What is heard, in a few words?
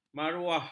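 "maruah", Malay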